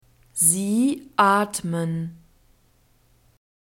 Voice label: "sie atmen", German; verbs present tense regular

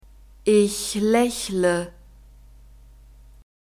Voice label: "ich laechle", German; verbs present tense regular

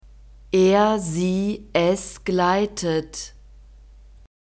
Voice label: "er sie es gleitet", German; verbs present tense irregular

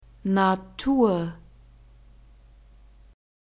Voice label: "natur", german; nouns gender feminine

